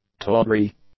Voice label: "tawdry", English; adjectives